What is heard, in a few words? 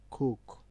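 "cook", Nigerian Pidgin, male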